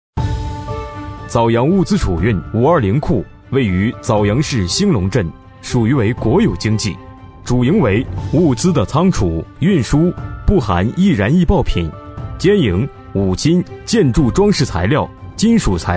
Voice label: 男声 专题汇报 稳重明亮

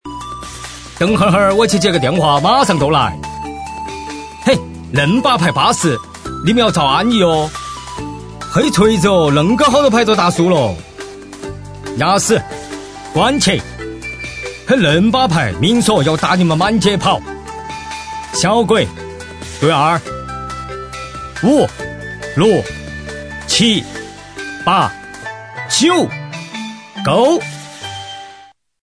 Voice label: 男声 重庆 斗地主

